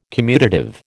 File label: "commutative", American